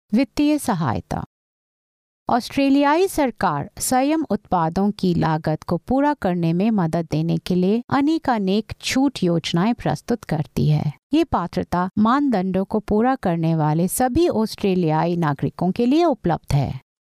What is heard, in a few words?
Hindi; Continence Foundation; Financial Assistance